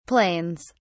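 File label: "planes", British English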